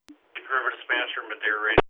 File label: Medary Radio